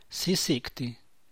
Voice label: "si sicti", San Mateo Macuilxochitl Zapotec; how much (quantity); ¿qué cantidad?, ¿qué tanto?